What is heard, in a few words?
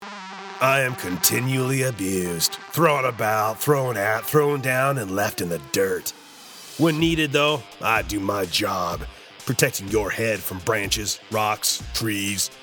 Radio PSA